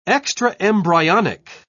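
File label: "extraembryonic", British English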